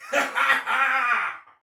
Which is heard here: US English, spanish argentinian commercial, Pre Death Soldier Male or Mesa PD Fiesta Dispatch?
Pre Death Soldier Male